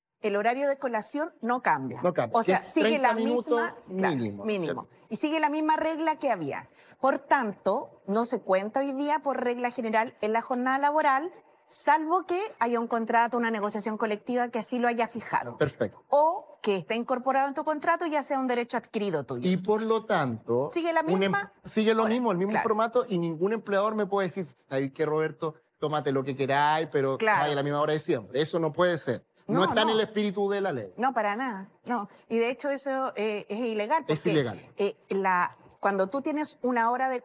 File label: vocals; 40 HORAS; el trabajo aclara dictamen de reduccion horaria de la ley de 40 horas